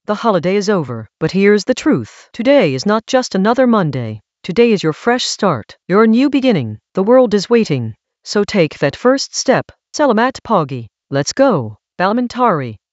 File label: narration